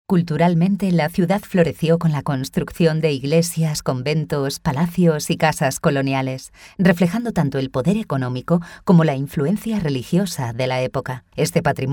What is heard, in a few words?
locutora spanish voiceover